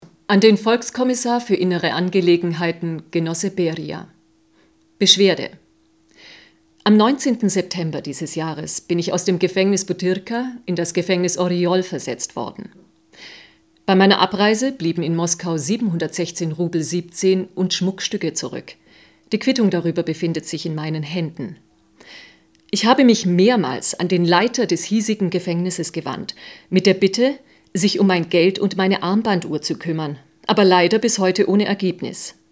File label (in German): Schauspiel Dynamisch